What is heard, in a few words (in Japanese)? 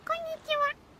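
"konnichiha", Japanese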